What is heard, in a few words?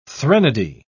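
"threnody", British English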